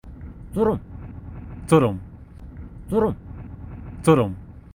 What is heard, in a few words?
Mongolian word for Ground Squirrel